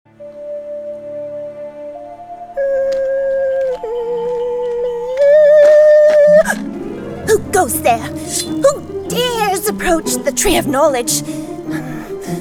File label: Fairy Mid Atlantic Accent